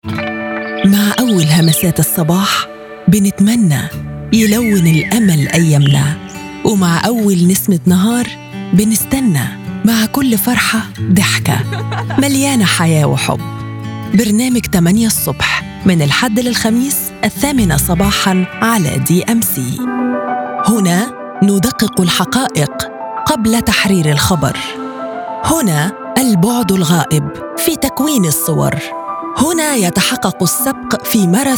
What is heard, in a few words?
Egyptian Promo